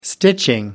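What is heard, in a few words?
"stitching", English, British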